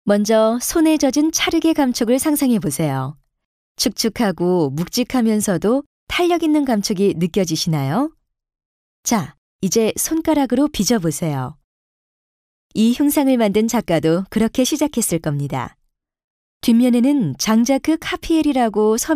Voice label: korean commercial; Corea del Sur; Coreano; tiene una voz muy pacífica y tranquila